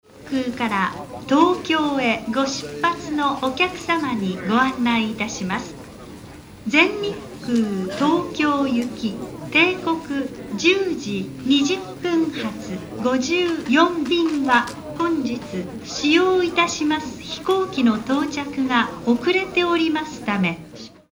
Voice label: airport japanese

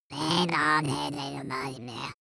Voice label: goblin mumble breathy

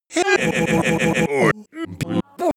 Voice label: glitch talk fx

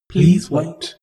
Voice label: shuttle female status report

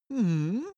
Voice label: cartoon male agree

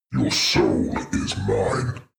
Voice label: demon dark voice thick speak your soul is mine

